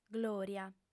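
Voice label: "glòria", Sardinian, female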